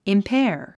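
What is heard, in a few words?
"impair", English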